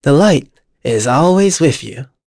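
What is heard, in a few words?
Vox Victory, voices heroes English; Lucias; kings raid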